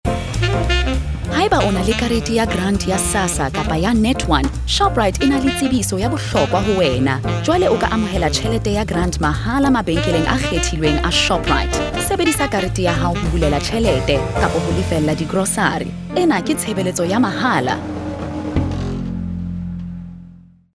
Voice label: Sesotho Hard Sell

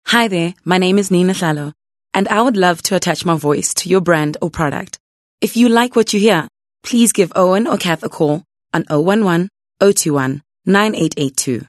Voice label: English conversational

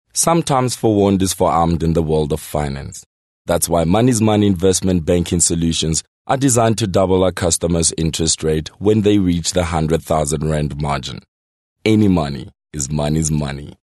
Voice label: English corporate

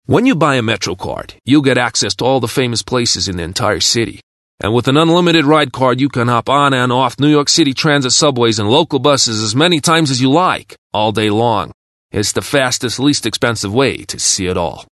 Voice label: English Character New York Brooklyn

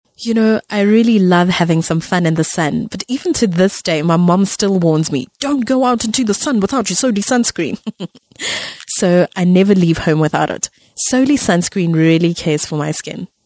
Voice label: English conversational

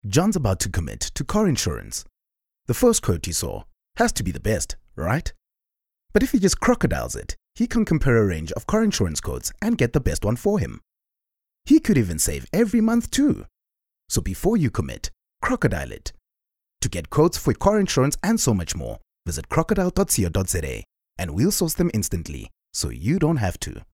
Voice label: English Conversational

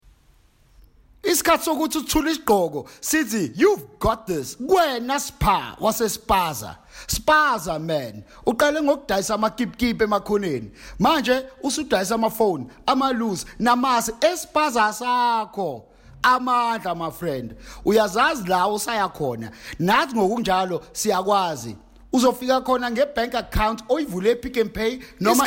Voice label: Zulu Corporate

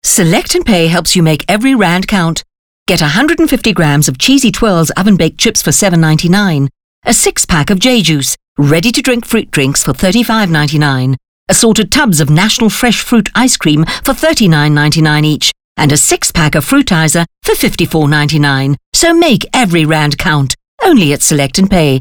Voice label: English HardSell

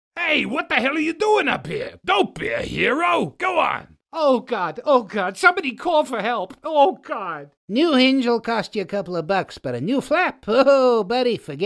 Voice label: American; OSM Talent

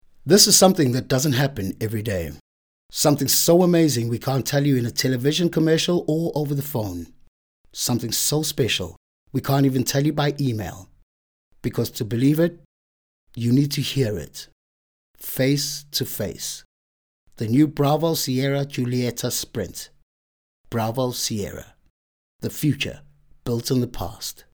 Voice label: English dramatic; OSM Talent

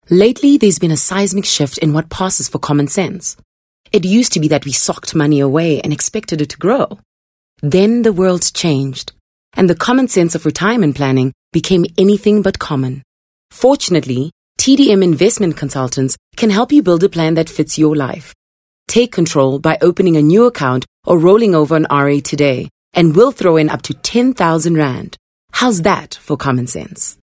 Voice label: English Corporate

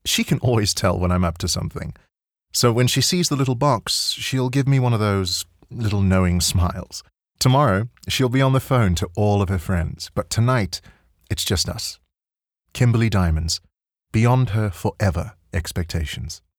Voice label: English Conversational Youthful; OSM Talent

